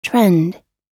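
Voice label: "trend", English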